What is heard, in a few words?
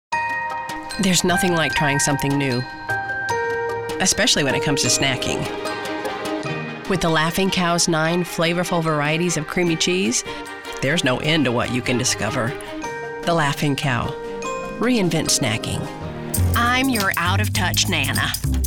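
commercial, Voiceover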